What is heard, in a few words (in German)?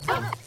charaktere; botanicula